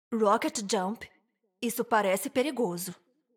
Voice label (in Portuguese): rocket jump, pt-br